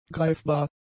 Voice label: "greifbar", German; adjectives

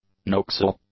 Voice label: "noxal", english; adjectives

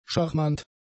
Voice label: "charmant", German; adjectives

